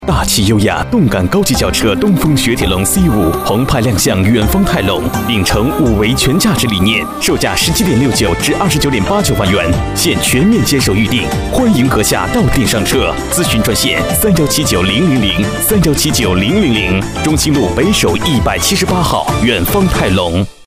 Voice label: boy car; Chinese; guanggao